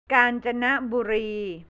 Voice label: "Kanchanaburi", Thai, central; กาญจนบุรี; แผนที่ประเทศไทย